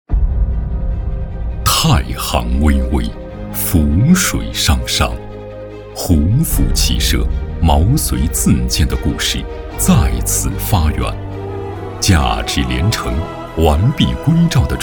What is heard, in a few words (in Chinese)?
男 专题 学校 片 雄浑壮阔; 河北工程大学